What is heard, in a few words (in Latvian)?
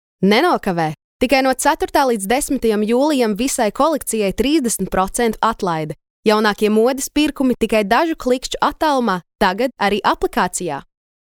Reklāma LV